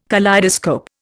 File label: "kaleidoscope", Indian